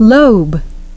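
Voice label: "lobe", English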